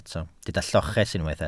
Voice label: "lloches", Welsh